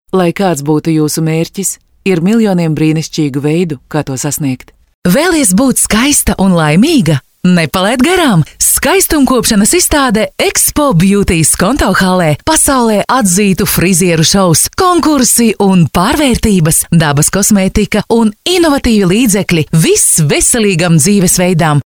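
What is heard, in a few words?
Latvian, female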